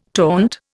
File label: "taunt", Indian